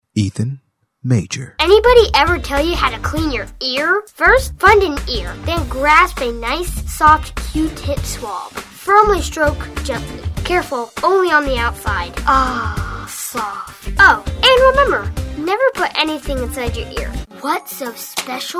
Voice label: Voice Over